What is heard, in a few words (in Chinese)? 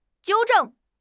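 "纠正", Chinese; HSK6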